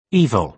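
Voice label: "evil", British English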